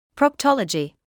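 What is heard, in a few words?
"proctology", British English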